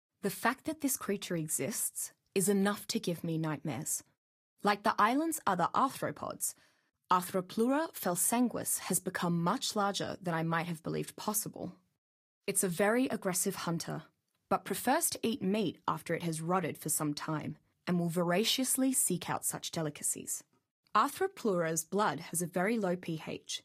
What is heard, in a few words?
Dossier Arthropluera VO